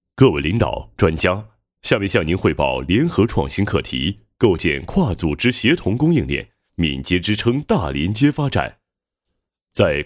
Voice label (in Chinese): 男 工作汇报 讲稿; 构建跨组织协同供应链